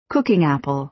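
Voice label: "cooking apple", American English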